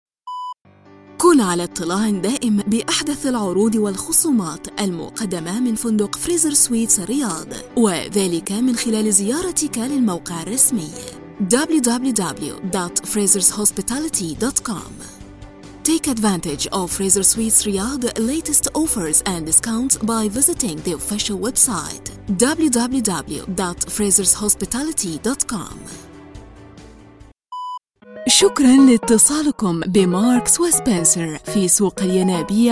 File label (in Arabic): arabic ivr